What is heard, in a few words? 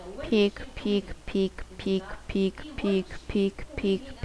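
"pick", spoken word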